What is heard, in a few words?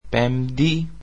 "Thursday" greek